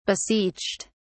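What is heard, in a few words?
"beseeched", British English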